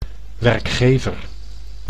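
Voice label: "werkgever", Dutch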